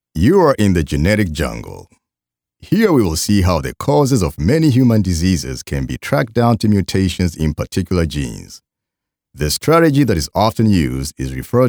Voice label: english e-learning

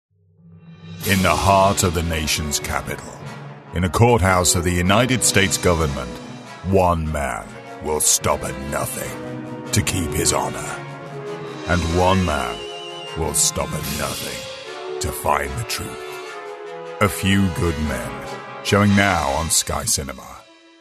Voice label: english british movie trailers

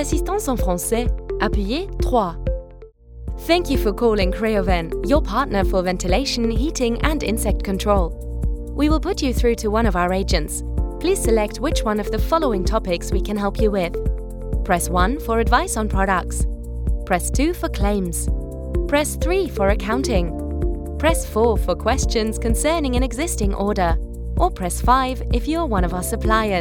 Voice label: English ivr

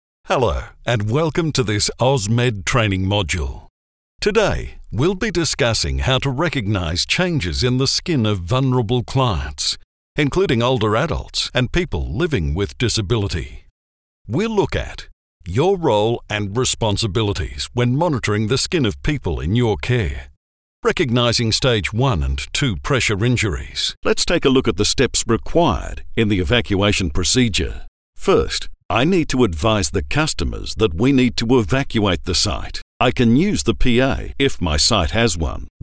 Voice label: english australian explainer videos

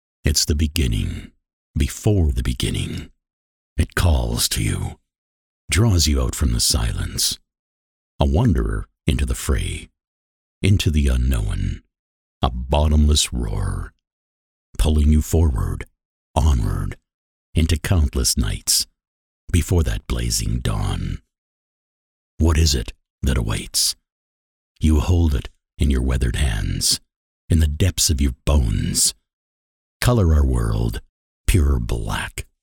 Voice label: english american podcasts

